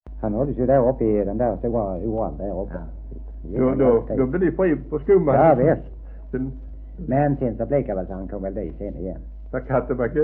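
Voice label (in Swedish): Degeberga Skåne, svenska sagor dialekter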